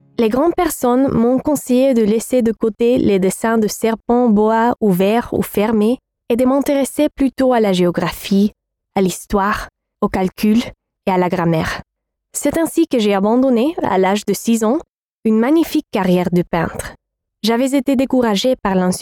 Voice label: female English USA and Spanish Venezuelan French documentary narration; Devine Voices